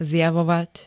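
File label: "zjavovať", Slovak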